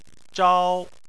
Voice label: "zhao1", Chinese